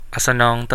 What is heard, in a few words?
goodnight, Central Dusun